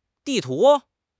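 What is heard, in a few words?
"di4 tu2", Mandarin Chinese; HSK3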